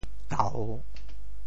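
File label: "tau3", Teochew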